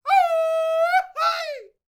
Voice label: MEXICO VOICE, MEXICAN; ILIO; Vocal Planet VOL-5